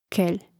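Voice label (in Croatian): "kelj", Hrvatski; Mrežnik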